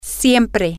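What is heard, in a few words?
always, Spanish, female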